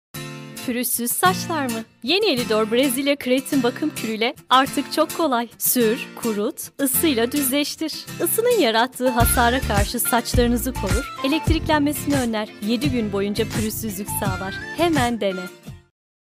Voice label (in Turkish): reklam muzkli; elidor